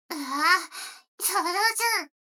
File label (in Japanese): 女の子 台詞, ASMR